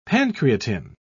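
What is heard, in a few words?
"pancreatin", British English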